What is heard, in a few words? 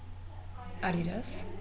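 Dutch, female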